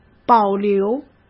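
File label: "保留", Mandarin Chinese; chinese-flashcards; hsk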